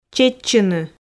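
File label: "чеччыны", Komi, female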